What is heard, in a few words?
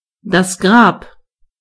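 grave, German voices words; gcompris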